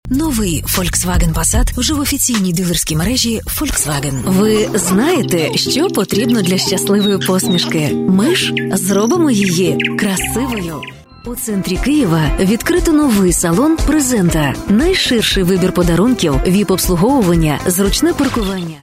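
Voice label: Ukrainian, female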